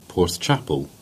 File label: "Chapel Porth", Cornish; place names